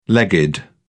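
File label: "legged", British English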